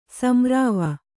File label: "ಸಂರಾವ", Kannada